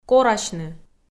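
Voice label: "корасьны", Komi, female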